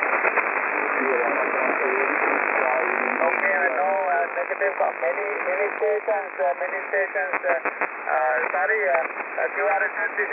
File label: qso